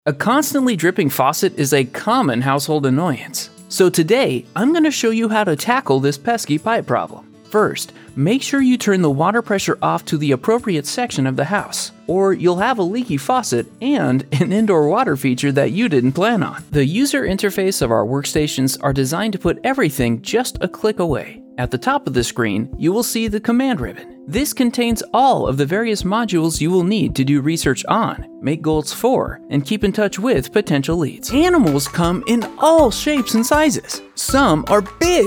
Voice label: eLearning